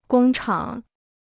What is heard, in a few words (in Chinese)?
"工厂", Chinese